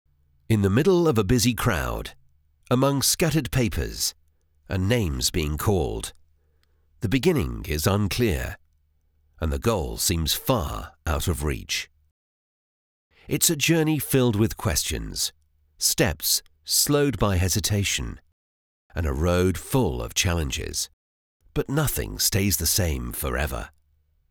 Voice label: english british audiobooks